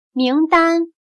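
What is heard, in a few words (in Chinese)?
"名单" Mandarin Chinese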